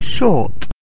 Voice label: "short", English